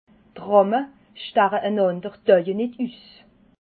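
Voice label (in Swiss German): "drahme", Alsatian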